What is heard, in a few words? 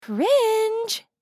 Cringe meme voice female